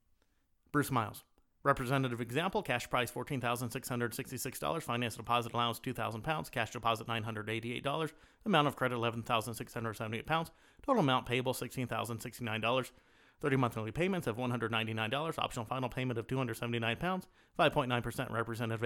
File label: Speed Reading